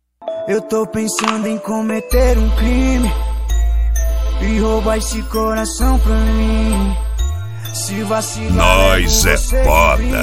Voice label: rap; som automotivo